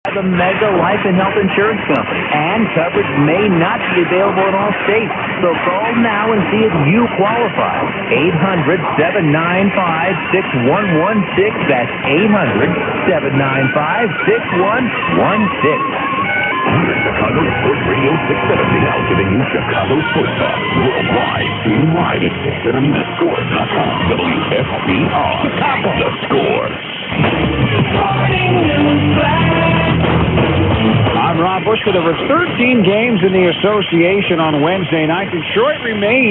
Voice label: 670 thick sounding advert, mw dxing; wscr; chicago

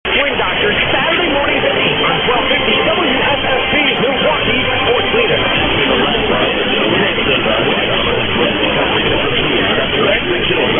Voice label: 1250, mw dxing; wssp; milwalkee

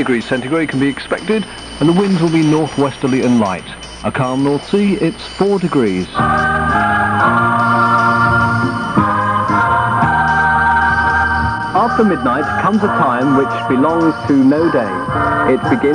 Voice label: roadshow ad, on hour weather; caroline 963am

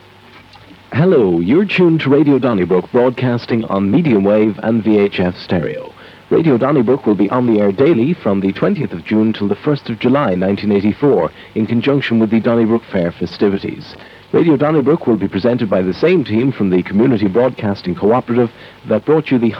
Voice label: test announcements; donnybrook; 981am; irish; festival stations

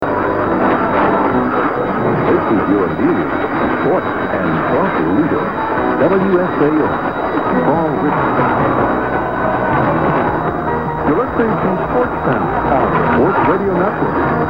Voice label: 1480, mw dxing; WSAR; Fall River

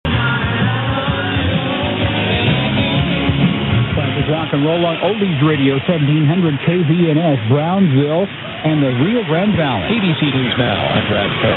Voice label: 1700, mw dxing

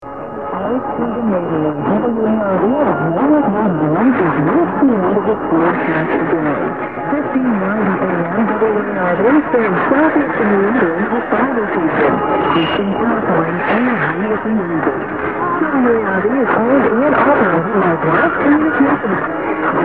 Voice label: 1590, mw dxing; WARV; Warwick RI

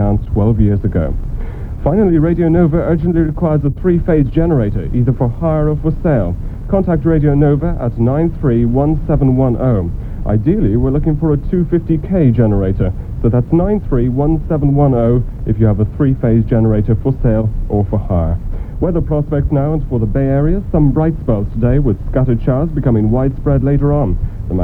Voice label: advert for generator; 738am; irish; nova

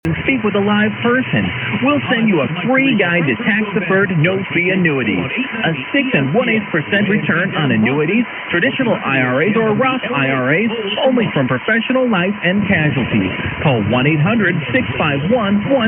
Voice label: 890, mw dxing; wls

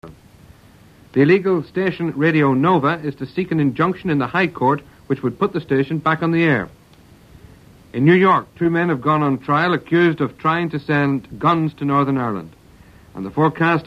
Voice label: news

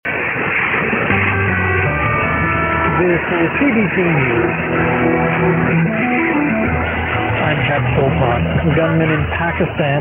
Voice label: 1550, mw dxing; cbc; windsor ont

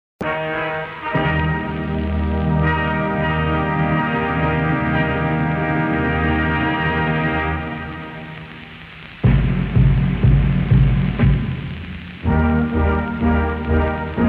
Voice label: radio opening; 1982; leinster; irish

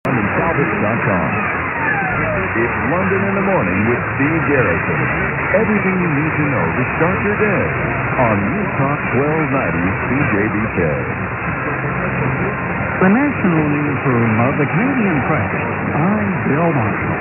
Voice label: mw dxing; cjbk

